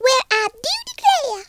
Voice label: amazing, Southern Belle; speech